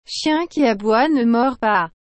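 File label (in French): "Chien qui aboie ne mord pas", French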